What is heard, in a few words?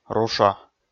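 "руша", Komi, male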